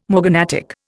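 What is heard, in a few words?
"morganatic", Indian English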